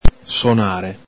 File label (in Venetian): "sonare", Veneto; ENG: play, sound; ITA: suonare; el Galepin